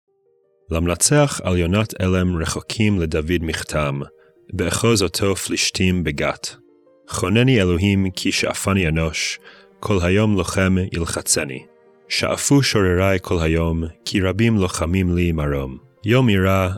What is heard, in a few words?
poetry and prayer psalm hebrew